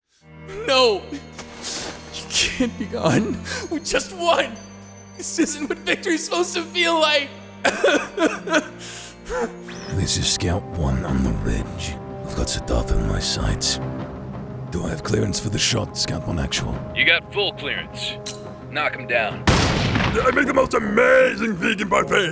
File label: Character; Commercial, E-Learning, Corporate Narration, IVR, Videogame